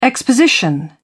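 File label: "exposition", British English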